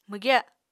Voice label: "mwgya7", Apatani

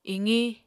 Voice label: "iqiñ", Apatani

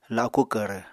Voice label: "laku kvrv", Apatani

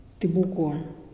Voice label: Muyuw moon; Lae Unitech

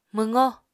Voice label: "mwqó", Apatani

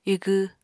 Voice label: "igw", Apatani

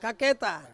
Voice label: "kaketa", Ansus; netbag

